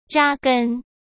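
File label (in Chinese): "扎根", Mandarin Chinese; chinese-flashcards; hsk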